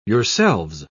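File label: "yourselves", British English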